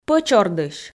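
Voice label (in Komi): "пӧч ордысь", Komi, female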